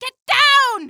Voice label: get down, female; Half-Life 2

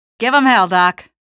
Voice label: give em hell, female; Half-Life 2; canals; gunboat

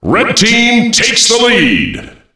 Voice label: red team takes the lead, announcer voice lines; Unreal Tournament; ut2003

